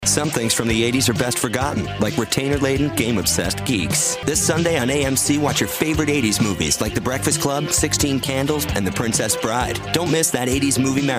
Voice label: promo men

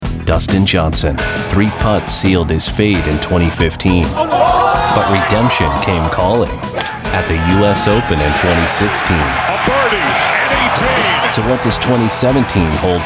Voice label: promo male sports

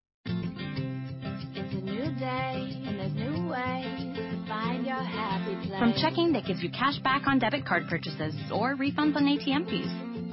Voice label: commercial, commercial women

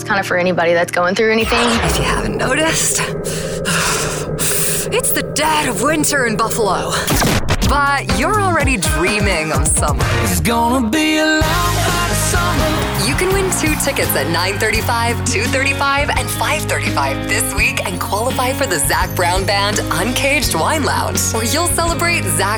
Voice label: radio imaging women country

